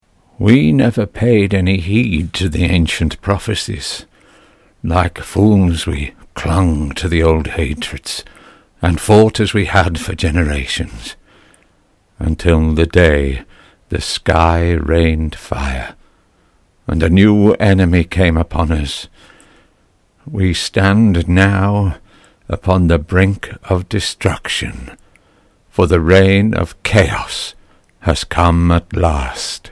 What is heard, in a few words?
Old Man